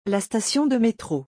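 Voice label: "la station de metro", French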